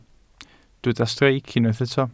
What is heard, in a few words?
"streic", welsh